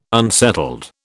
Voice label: "unsettled", British

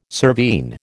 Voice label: "cervine", American

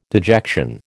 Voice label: "dejection", American

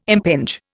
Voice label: "impinge", Indian

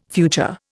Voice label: "future", Indian

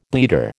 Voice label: "bleeder", American

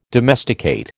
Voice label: "domesticate", American

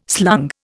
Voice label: "slung", Indian

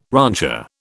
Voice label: "rancher", British

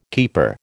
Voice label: "keeper", American

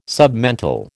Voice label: "submental", American

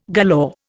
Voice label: "galore", Indian

